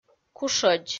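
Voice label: "кушӧдз", Komi, female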